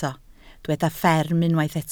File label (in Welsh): "fferm", Welsh